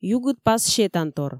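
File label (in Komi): югыдпас сетантор, Komi, female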